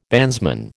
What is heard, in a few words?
"bandsman", American